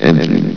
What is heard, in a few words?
"engine", vox; valve